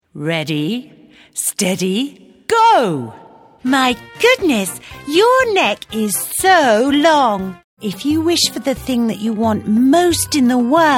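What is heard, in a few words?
British English, toys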